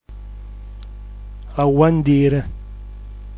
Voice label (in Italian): "lauandir", dialetto giulianova